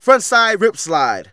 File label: Narration; Ollie King